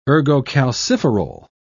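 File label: "ergocalciferol", British English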